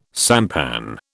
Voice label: "sampan", British